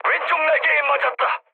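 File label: Korean left wing mood med, speech; War Thunder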